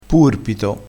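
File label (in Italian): "purpito", vocabolario dialettale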